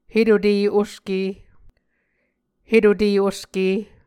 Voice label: "hetute oske", Muscogee